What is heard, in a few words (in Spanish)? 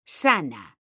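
"sana", female; mekanta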